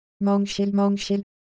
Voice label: Korean, ideophones; Shape

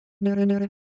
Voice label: Japanese Texture, ideophones

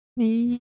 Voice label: Japanese Shape, Ideo Phones

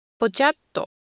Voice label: Japanese, Ideo Phones; Shape

